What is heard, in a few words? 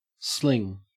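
"sling", Australian English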